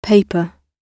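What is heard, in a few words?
"paper", British English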